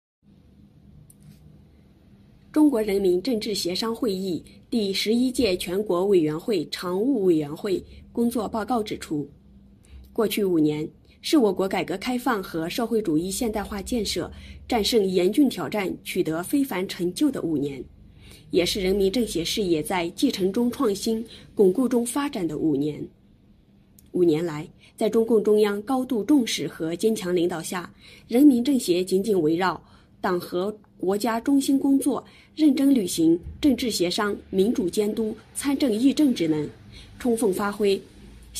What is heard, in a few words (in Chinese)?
新闻